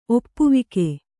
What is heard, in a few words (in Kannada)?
"ಒಪ್ಪವಾಗು", Kannada